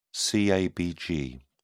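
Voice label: "cabg", British English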